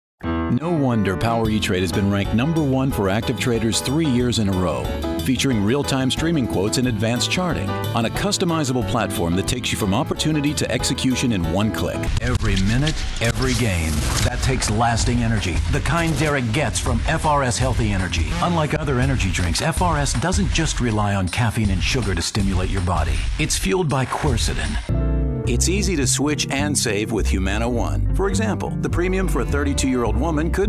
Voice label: Commercial Male; commercial voiceover